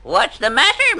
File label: what's the matter, cartoon